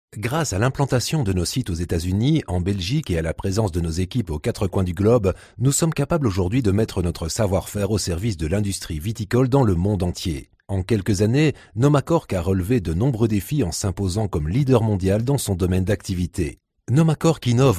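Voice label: French, male, corporate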